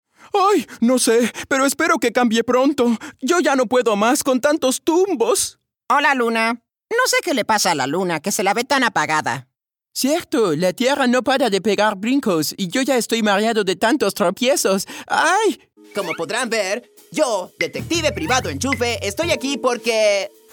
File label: spanish latin-amercian characters